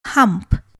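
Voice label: "hump", English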